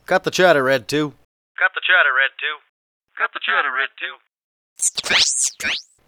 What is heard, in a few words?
chatter, x-wing radio; Return of Pink Five